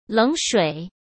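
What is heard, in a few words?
"冷水", Mandarin Chinese; chinese flashcards; hsk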